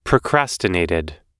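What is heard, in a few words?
"procrastinated", American English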